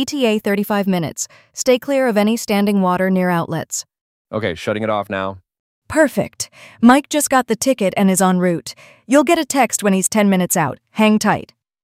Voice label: emergency dispatch; home services